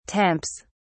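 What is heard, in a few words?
"temps", British English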